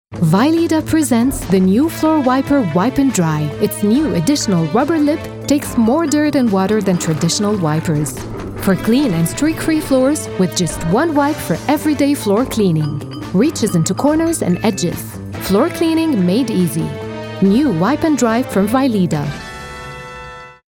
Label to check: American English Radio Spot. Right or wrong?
Right